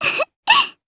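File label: Vox Happy, Japanese; Rehartna; kingsraid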